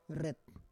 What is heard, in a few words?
"ret", Roon